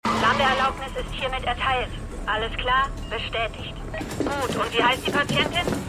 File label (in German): Disponentin; Rooney; AtlantaMedical